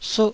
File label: "suh", Taiwanese